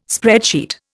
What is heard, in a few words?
"spreadsheet", Indian